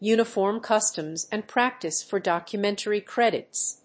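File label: "Uniform Customs and Practice for Documentary Credits", English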